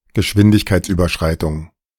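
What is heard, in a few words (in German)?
"Geschwindigkeitsüberschreitung", German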